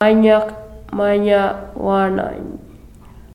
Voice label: ny sound; mawng spelling